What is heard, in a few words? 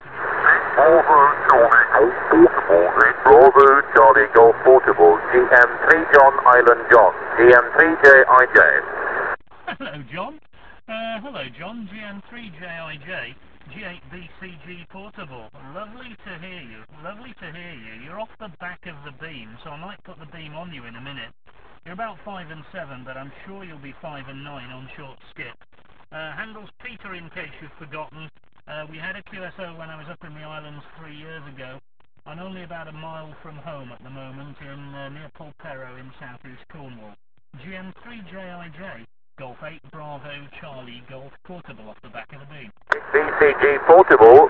qso